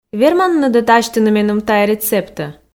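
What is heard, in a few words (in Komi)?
верманныд-ӧ дасьтыны меным тайӧ рецептӧ, Komi, female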